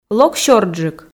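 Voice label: "лок сёрджык", Komi, female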